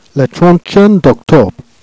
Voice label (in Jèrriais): "31 octobre", Jèrriais